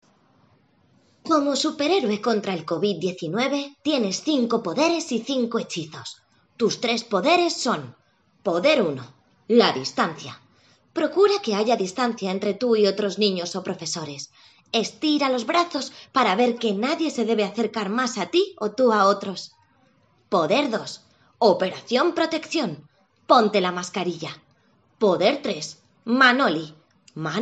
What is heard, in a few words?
female spanish castilian child voice